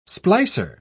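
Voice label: "splicer", British English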